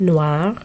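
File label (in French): "noir" French adjective, masculine singular; Colors and Adjectives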